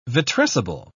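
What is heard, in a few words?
"vitrescible", English, British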